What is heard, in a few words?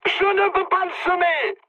aircraft damaged mood high, speech fr; War Thunder